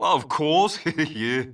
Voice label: Male; baldurs1